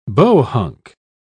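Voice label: "bohunk", British English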